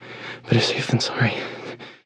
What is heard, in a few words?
radio initial broadcast; Left 4 Dead 2; Source Engine; video games; npc; churchguy